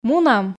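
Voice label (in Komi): "мунам", Komi, female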